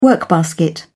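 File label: "workbasket", British English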